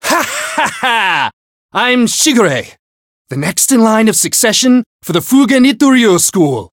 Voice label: story; shigure